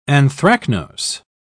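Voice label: "anthracnose", British English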